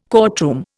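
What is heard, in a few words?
"courtroom", Indian English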